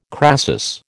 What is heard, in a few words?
"crasis", American